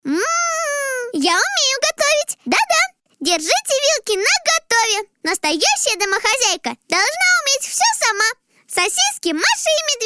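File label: 11 years parody, Russian; Masha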